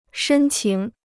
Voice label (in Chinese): "深情", Chinese